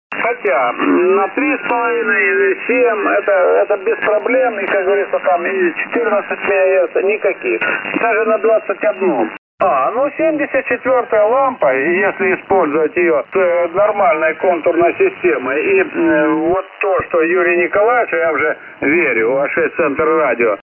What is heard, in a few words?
SDR1000